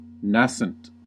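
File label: "nascent", English, American accent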